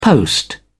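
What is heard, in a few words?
"post", British English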